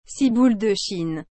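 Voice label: "ciboule de Chine", French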